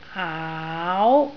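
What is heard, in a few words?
"aau2", Cantonese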